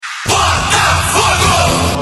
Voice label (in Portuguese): radio; botafogo; radio tupi